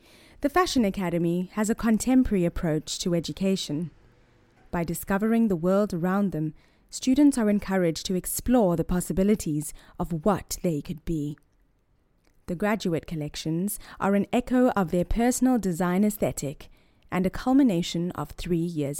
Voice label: Neutral South African Accent

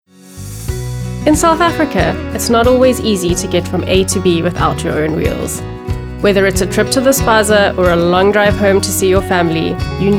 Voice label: Conversational ad; Auto

